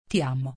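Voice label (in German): Italienisch, ich liebe dich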